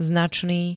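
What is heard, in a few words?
"značný", Slovak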